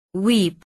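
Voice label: "weep", English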